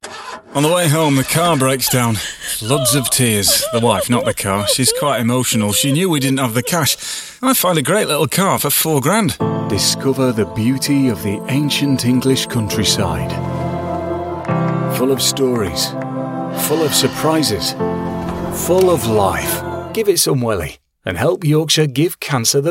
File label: northern